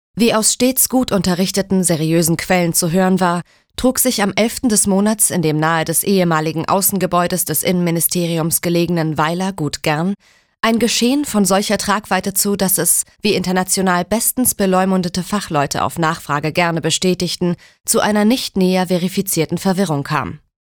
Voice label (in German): Trocken Neutral